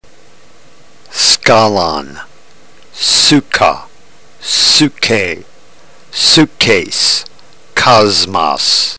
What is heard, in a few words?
drill, ntgreek